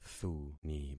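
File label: "thuni", concatenated syllables; Affixing experiment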